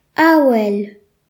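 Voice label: "wind", Breton; gcompris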